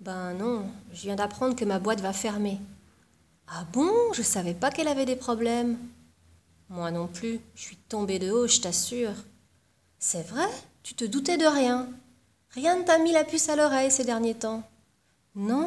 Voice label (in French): dialogue mauvaise surprise 4 expressions françaises